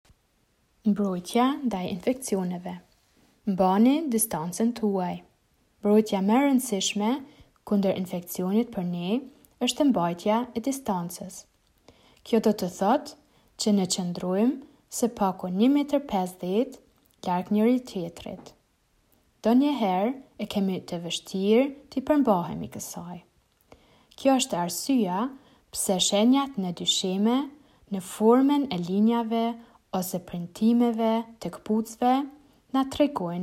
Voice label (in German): Albanisch